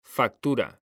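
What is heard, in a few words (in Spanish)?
"factura", Spanish; mekanta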